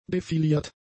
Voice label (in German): "defiliert", german; adjectives